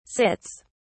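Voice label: "sits", British English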